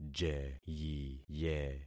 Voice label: "jeyiye", concat syllables; Affixing experiment